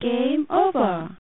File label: Game Over (Female Voice); Sadari; arcade